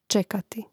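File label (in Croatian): "čekati", Hrvatski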